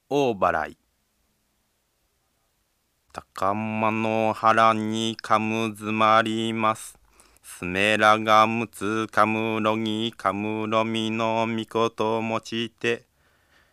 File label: norito